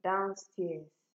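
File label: "downstairs", Nigerian Pidgin, female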